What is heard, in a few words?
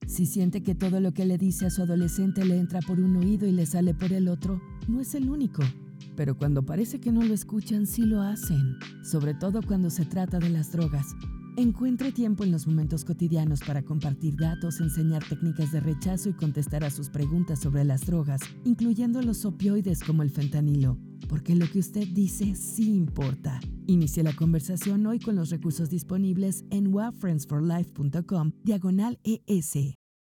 SPANISH, Talk to Teens Audio PSA; Friends For Life; Share this PSA audio encouraging adults to talk to their teens about fentanyl.